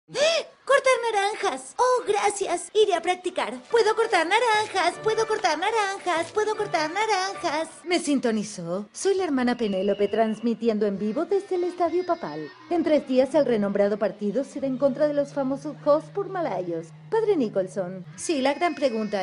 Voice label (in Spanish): voces de animacion